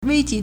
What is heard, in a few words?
"wei1ji2", Chinese